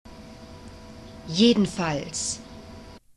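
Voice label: "jedenfalls", German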